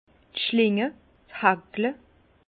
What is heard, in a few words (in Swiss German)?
"schlinge", Bas Rhin; vin; vrilles